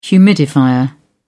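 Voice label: "humidifier", English, British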